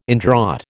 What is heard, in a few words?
"indraught", American